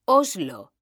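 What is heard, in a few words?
"oslo", Spanish; mekanta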